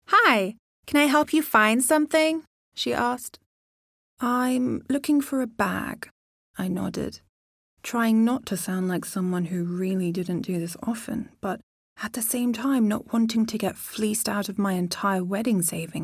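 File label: British English, eBook characters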